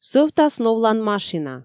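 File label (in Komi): "сӧвтас новлан машина", Komi, female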